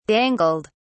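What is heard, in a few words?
"dangled", British English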